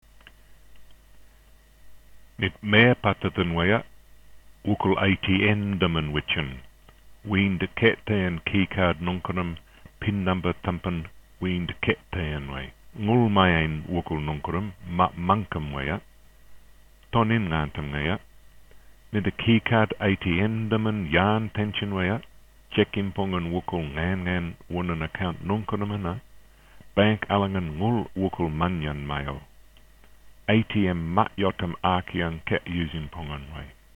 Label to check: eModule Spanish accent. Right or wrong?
Wrong